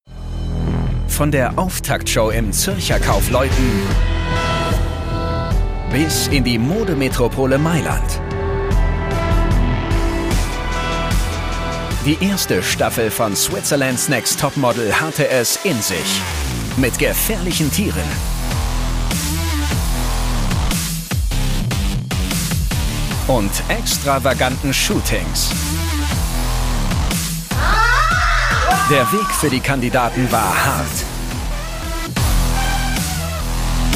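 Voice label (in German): Highlights, Kommentar, Männer; SNTM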